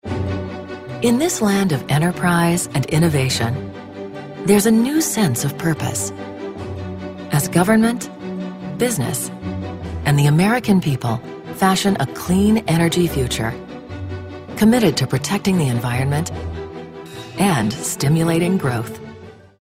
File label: Corporate